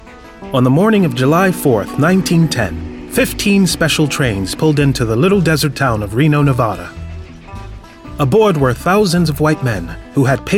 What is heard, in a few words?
Narration